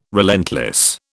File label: "relentless", British